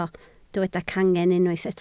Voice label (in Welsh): "cangen" Welsh